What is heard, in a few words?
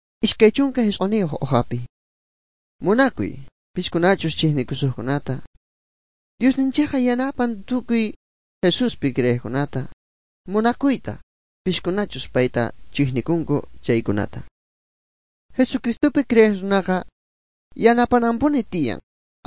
good news quechua bolivian sucre; bible